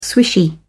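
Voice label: "swishy", British English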